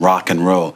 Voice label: LoFi Words, LoFi Vocals